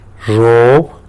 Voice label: "zhub", tlacolula; corn